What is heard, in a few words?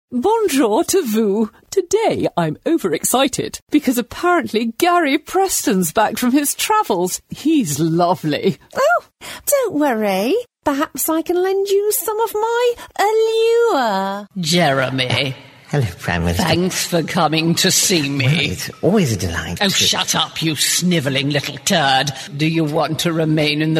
impressions